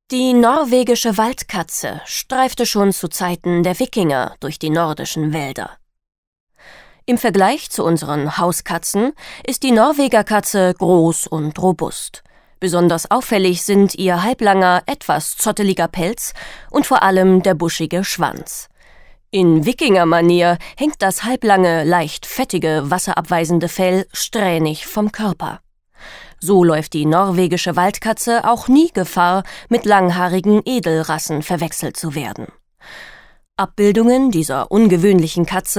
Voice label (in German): doku